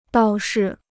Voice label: "倒是", Chinese

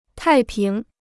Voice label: "太平", Chinese

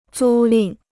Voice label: "租赁", Chinese

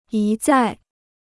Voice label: "一再", Chinese

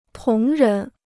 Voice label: "同人", Chinese

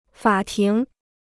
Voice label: "法庭", Chinese

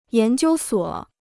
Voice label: "研究所", Chinese

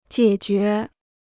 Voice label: "解决", Chinese

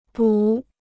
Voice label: "补", Chinese

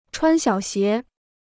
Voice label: "穿小鞋", Chinese

